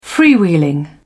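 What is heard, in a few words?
"freewheeling", British English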